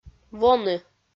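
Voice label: "воны", Komi, female; audio dict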